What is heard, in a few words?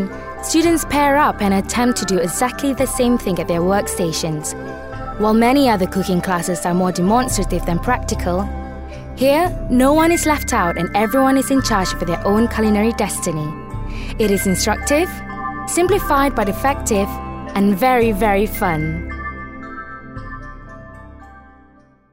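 Malay Malaysian Food TV Narration English Malay Accent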